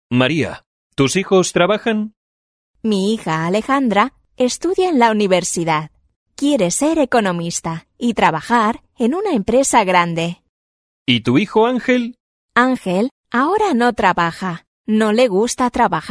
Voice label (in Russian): urok dialog